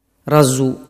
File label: "razu", dialettu